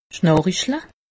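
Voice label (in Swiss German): "schnarriche", Alsatian; medecine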